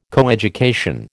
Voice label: "coeducation", American